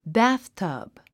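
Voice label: "bathtub", English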